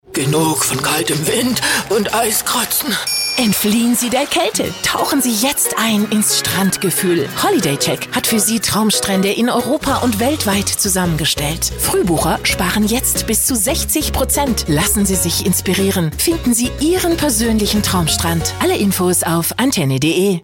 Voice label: german female voice continuity radio